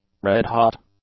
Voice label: "red-hot", English; adjectives

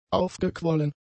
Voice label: "aufgequollen", German; adjectives

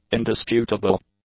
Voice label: "indisputable", English; adjectives

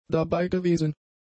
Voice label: "dabeigewesen", German; adjectives

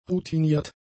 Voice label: "routiniert", German; adjectives

